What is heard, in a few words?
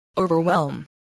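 "overwhelm", spoken word, spelling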